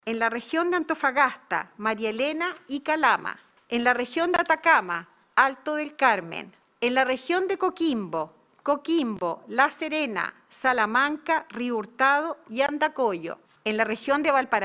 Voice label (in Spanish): cuña